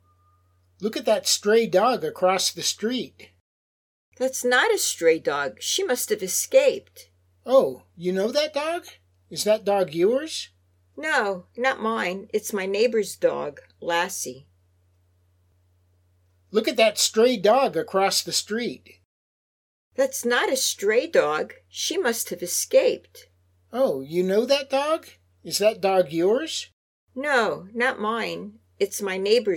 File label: Natural Dialog; Lesson 3